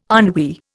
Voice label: "ennui", Indian